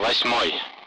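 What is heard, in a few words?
Speech, Russian, 8th; Allied Flight; DCS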